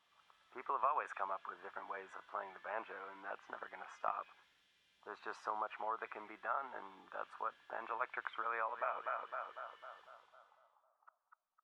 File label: Narration